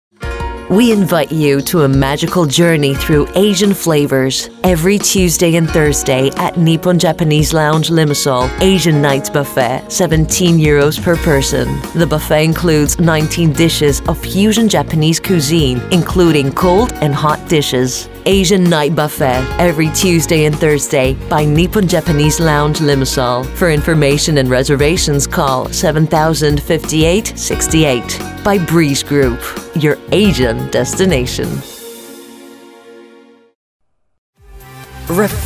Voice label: VoiceOver English